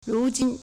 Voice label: "ru2 jin1", Chinese